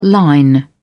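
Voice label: "line", British English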